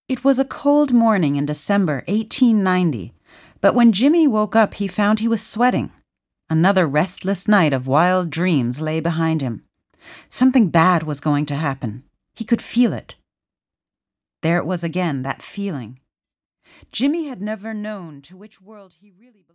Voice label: literary narration